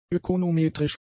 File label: "ökonometrisch", German; adjectives